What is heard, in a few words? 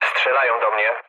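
aircraft damaged, mood low, Polish speech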